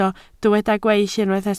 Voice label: "gweill", Welsh

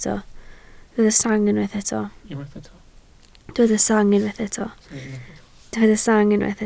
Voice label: "sang", Welsh

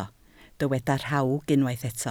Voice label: "rhawg", Welsh

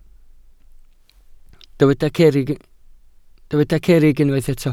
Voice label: "cerrig", Welsh

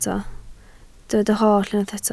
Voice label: "holl", Welsh

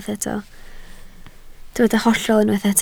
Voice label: "hollol", Welsh

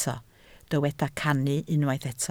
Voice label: "cannu", Welsh

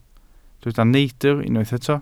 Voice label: "neidr", Welsh

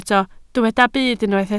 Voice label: "byd", Welsh

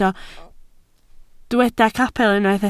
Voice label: "capel", Welsh

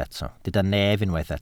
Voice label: "nef", Welsh

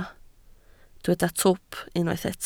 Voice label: "twp", Welsh

